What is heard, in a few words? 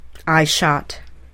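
"I shot", English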